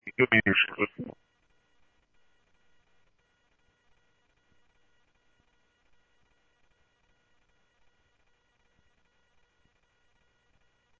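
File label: Simulcast Chandler Patrol B, scanner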